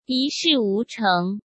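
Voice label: "一事无成", Mandarin Chinese; hsk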